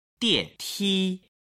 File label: "dianti", Chinese